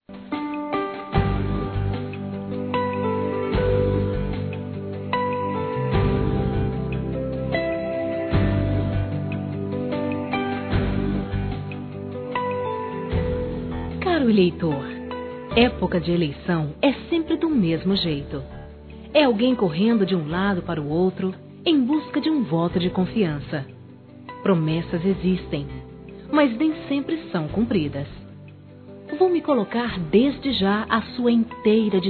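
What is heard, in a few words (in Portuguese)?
POLITICO PEDINDO VOTOS-Feminino; Telemensagens; Telemensagens 2017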